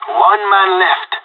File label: one enemy left, pirate; Counter Strike Model Pack; CS:GO